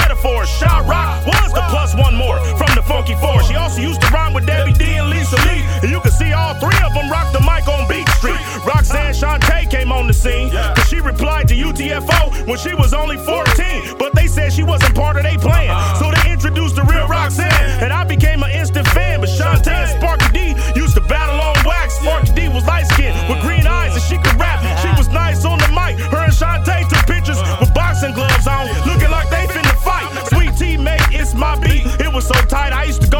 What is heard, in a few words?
female mcs; dubcnn